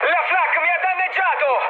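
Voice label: Italian damaged aa mood high, speech; War Thunder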